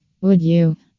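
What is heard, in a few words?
"would you", female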